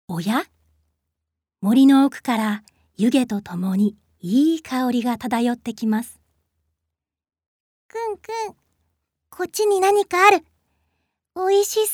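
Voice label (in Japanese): ナレ, ボイスサンプル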